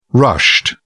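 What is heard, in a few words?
"rushed", British English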